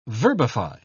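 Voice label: "verbify", British English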